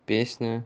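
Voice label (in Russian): Песня русский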